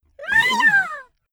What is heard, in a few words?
Cute molluscoid starfish greetings; Stellaris